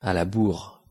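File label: "a la bourre" French; pronunciation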